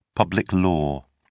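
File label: "public law", British English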